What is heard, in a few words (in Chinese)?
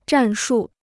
"战术", Chinese